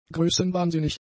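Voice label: "größenwahnsinnig", German; adjectives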